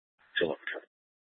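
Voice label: police dispatch, Scottsdale PD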